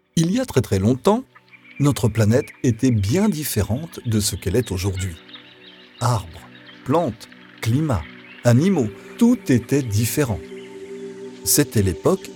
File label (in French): livre audio educatif; les dinosaures